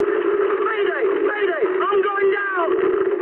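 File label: aircraft destroyed mood high, speech English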